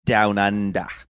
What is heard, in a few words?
saying down under australian